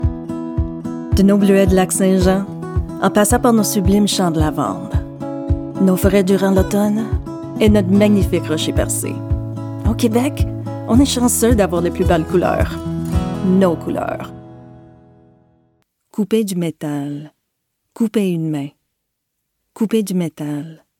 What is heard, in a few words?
French Commercial